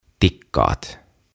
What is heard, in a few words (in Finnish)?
"Tikkaat" Ladder